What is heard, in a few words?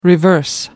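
"reverse", English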